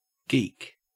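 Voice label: "geek", Australian English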